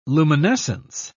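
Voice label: "luminescence", British English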